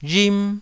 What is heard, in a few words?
"rhim1", taiwanese